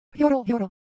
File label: Japanese Shape, Ideo Phones